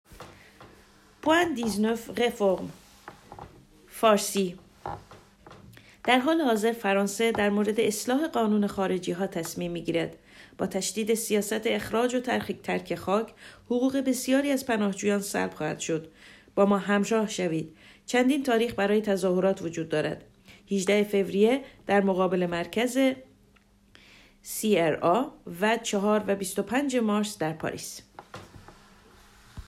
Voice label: farsi